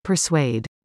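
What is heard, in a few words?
"persuade", English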